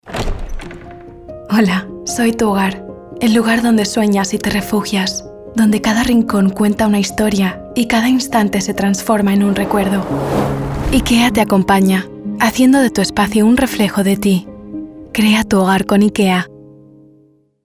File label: ENG Castilian Intimate Comforting; IKEA